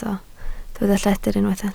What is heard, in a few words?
"lledr", Welsh